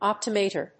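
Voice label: "optomotor", English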